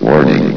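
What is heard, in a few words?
"warning", male synthesized voice; vox; source engine; hl1; video games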